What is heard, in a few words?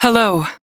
Crew Greet, Female; Dialog; en; Warframe; Railjack Crew; New Loka